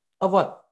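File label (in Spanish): Voice Acting es F, Spanish, female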